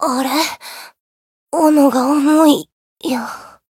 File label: retire, jp; phiro